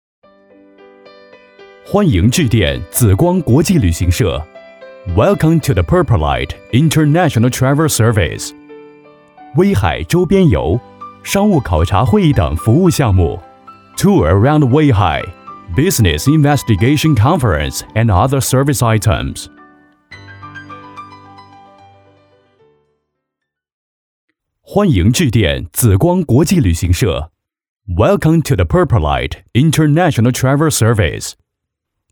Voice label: 男英 外语 国内英语 中英彩铃; 紫光国际旅行社

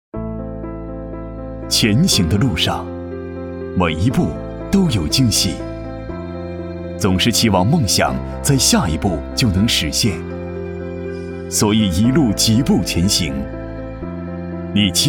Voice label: 男国 专题 医院 舒缓; 重庆医院

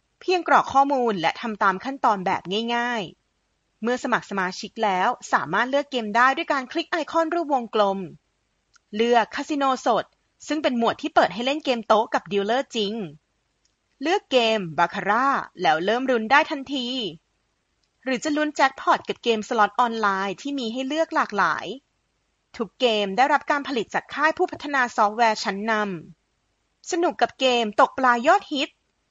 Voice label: 泰语女 外语 小语种

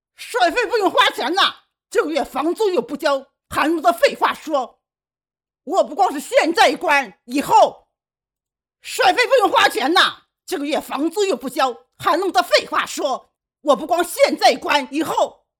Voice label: 模仿 包租婆叼烟

